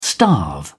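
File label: "starve", British English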